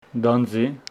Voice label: Homshetsi pear tree